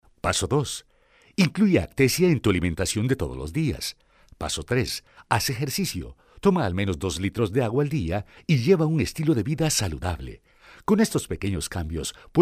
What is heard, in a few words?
locutor honduras hondurian voiceovers; locutor hondureño